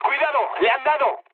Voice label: aircraft destroyed mood med; War Thunder